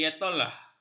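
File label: "ayatollah", Malay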